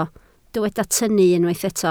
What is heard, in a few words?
"tynnu", Welsh